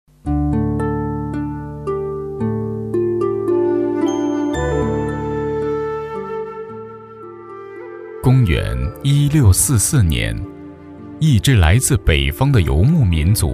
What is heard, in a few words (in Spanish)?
Locutor varón chino